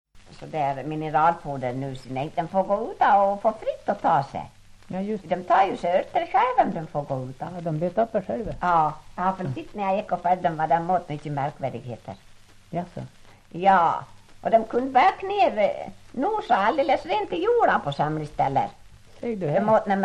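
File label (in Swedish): Ål Dalarna, dialekter; Folkminnen; Svenska sagor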